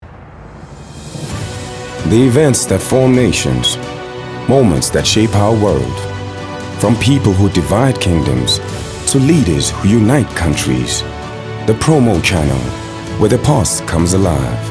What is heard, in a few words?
Promo; Siyajabula The Promo Channel